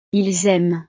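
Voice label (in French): "ils aiment", French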